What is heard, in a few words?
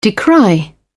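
"decry", British English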